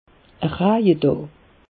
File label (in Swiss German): "raje daa", alsace; meteo